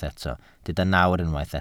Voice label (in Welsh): "nawr", Welsh